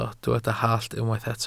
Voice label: "hallt", Welsh